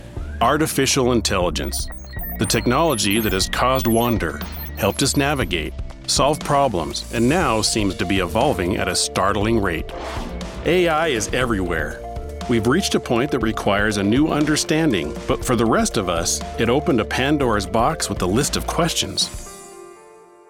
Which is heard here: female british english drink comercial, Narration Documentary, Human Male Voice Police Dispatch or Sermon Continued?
Narration Documentary